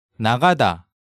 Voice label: "Nagada", Hangeul sounds

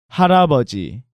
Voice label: "Harabeoji", Korean

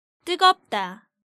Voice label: "Tteugeopda", Korean

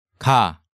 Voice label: "Ga", Hangeul sounds; DigitalSoju

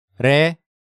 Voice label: "Lae", Korean; Hangeul

